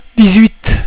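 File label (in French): "dix-huit", French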